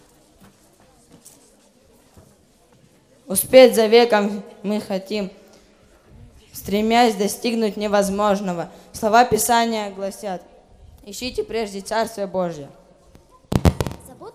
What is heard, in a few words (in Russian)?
Декламация, Детский лагерь; Успеть за веком мы хотим; Апшеронск-2010